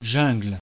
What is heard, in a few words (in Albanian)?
"jungle", frengjisht